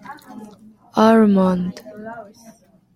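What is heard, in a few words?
"almond", Japanese, female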